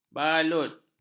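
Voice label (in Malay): "balut", Malay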